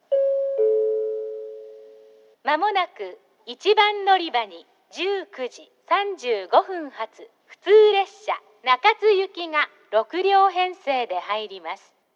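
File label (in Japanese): 1番のりば予告放送, 女声; 行橋; 普通 中津行き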